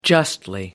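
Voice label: "justly", British English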